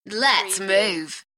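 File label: UK female, DJ vocals; Lets move; beanstalk audio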